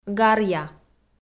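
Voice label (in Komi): "гаръя", Komi, female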